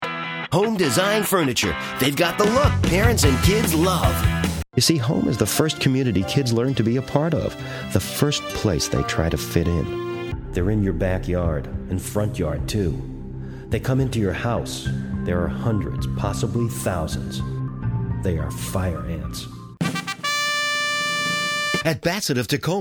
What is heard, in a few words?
Commercial